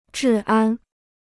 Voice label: "治安", Chinese

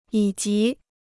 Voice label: "以及", Chinese

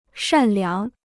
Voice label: "善良", Chinese

